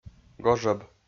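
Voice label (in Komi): "гожӧб", Komi-Zyrian, male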